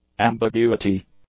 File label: "ambiguity", English; nouns